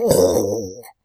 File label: Amanita Exhausted